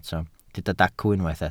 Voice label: "dacw", Welsh